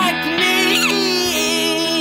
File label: instant voice crack